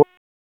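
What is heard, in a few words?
Raleigh Police North District Tactical; wake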